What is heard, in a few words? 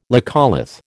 "laccolith", American